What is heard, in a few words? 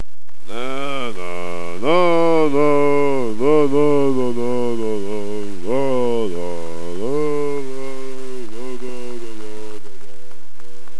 monologue, soldier; svencoop; paranoia